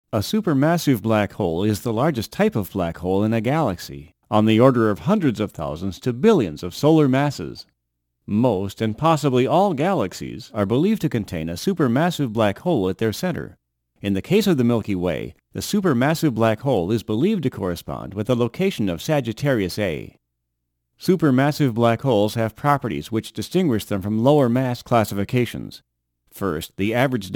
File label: documentary